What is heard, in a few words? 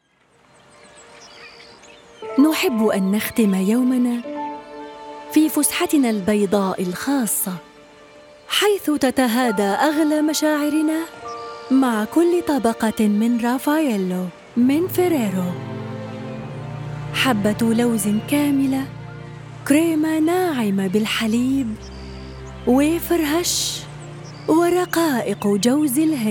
Commercial Standard Arabic reel